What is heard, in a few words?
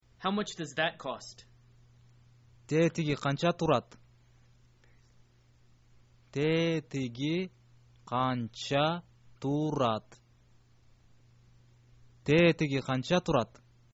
how much does that cost, kyrgyz